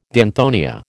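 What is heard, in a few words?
"danthonia", American English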